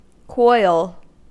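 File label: "coil", US English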